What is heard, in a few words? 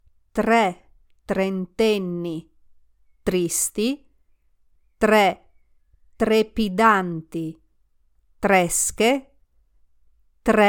tongue twister slow; scioglilingua